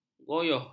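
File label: "gonyoh", Malay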